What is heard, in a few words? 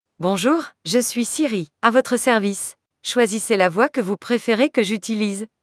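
French, France; iOS 26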